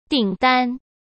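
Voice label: "订单", Mandarin Chinese; chinese-flashcards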